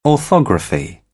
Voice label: "orthography", British; ɑː - ɒ; English